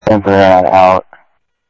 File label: South Scottsdale Sheriff, scanner audio